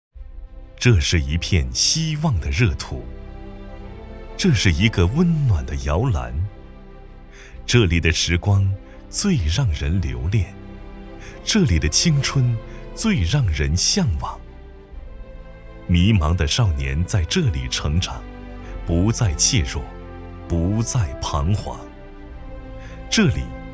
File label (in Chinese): 大气舒缓; 余庆县